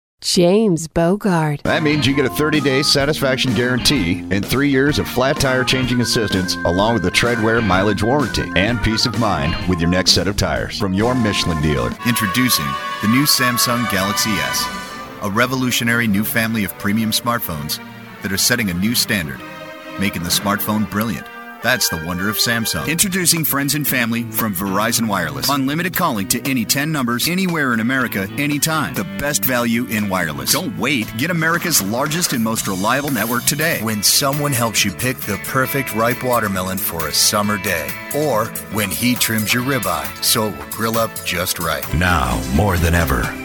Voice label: Voiceover